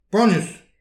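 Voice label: "poanius", Breton; petit precis de prononciation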